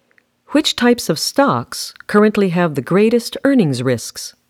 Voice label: natural reportorial